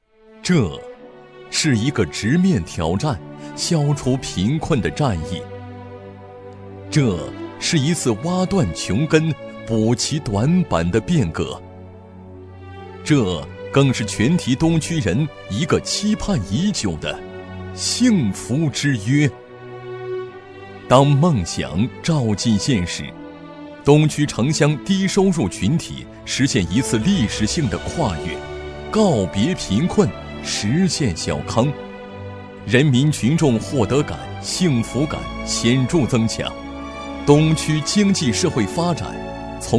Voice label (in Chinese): 男 专题; 攀枝花扶贫专题